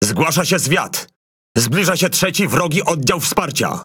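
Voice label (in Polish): ENEMY REINFORCEMENT LEFT SPAWN, Polish; PVE; World Of Warships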